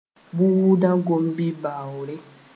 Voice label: "buudã gom-bi baoore", moore

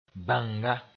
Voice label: "bãnga", moore

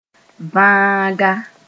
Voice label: "vãaga", moore

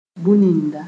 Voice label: "bũn-ninda", moore

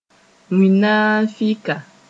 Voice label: "wĩnaafɩka", moore

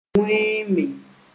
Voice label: "wẽeme", Moore

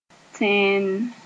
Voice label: "tẽnn", Moore

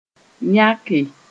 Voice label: "yãke", moore

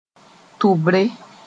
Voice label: "tʋbre", moore; ear

